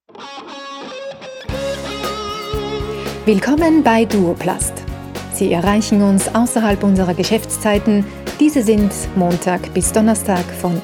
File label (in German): Telefon; DUOPLAST